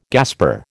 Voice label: "gasper", American